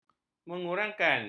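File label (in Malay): "mengurangkan", Malay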